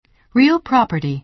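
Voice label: "real property", American English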